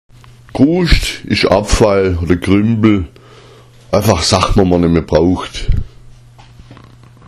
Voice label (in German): "kruscht", schwaebisch